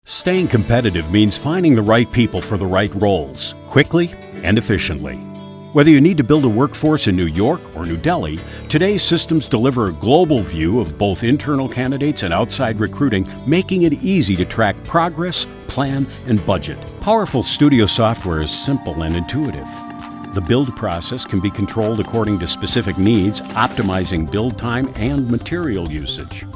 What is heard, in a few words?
Narrative